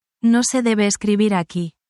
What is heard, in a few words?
spanish, spanish phrases